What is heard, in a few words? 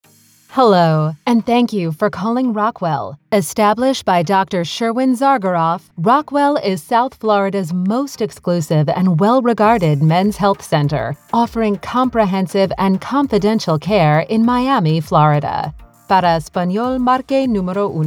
ivr